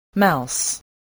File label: "mouse", voice, spelling